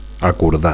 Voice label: "acordar", Catalan; to agree